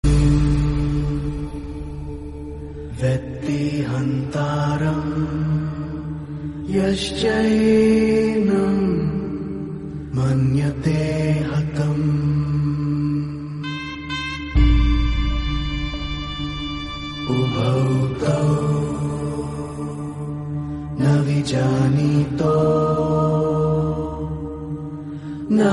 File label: devotional sanskrit; bhagawad geeta shloka